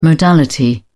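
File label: "modality", British English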